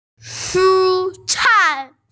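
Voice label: "shu cai", Mandarin Chinese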